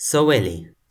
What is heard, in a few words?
"soweli", Toki Pona